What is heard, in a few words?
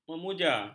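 "memuja", Malay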